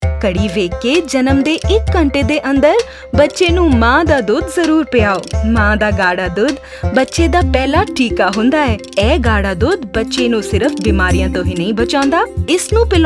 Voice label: Punjabi; Early Breastfeeding; poshan

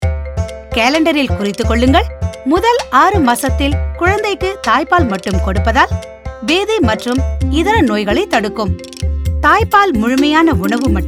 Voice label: Exclusive Breastfeeding Tamil, Audio Spot; poshan

